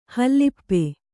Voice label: "ಹಲಪಿ", kannada

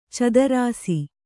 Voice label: "ಚದುರಿಸು", Kannada

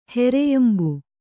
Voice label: "ಹೆರೆಯಂಬು", kannada

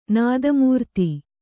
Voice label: "ನಾದಮೂರ್ತಿ", Kannada

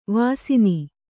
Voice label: "ವಸ್ನ", kannada

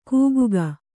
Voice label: "ಕೂಗುಗ", kannada

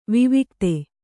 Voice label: "ವಿವಿಕ್ತ", kannada

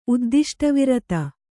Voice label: "ಉದ್ದಿಷ್ಟವಿರತ", Kannada

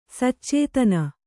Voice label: "ಸಚೇತನ", Kannada

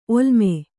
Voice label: "ಒಲ್ಲಮೆ", Kannada

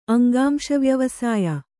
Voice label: "ಅಂಗಾಂಶವ್ಯವಸಾಯ", Kannada

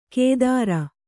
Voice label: "ಕೇದಾರ", Kannada

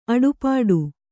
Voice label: "ಅಡೆಪಟ್ಟಿ", Kannada

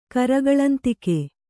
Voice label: "ಕರಗಳಂತಿಗೆ", Kannada

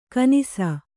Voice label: "ಘನಿಸು", Kannada

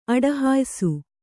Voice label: "ಅಡಹಾಯಿಸು", kannada

